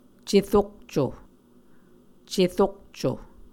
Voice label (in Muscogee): "cerokco", Muscogee